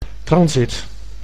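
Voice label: "transit", Dutch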